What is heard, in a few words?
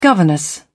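"governess", English, British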